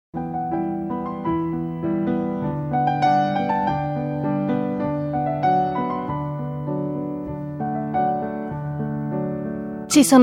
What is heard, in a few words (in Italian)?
Promo, uno spot radiofonico; Rotary Club